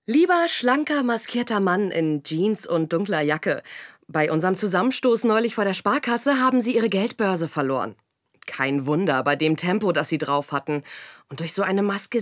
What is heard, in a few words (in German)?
radiospot